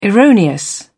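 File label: "erroneous", British English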